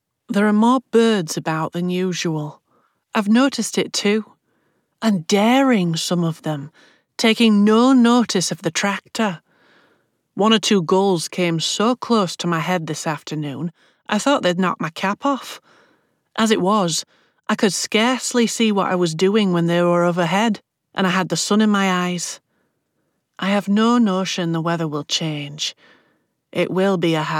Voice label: Accent Demo English Yorkshire